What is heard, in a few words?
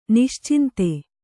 "ನಿಶ್ಚಿಂತೆ", kannada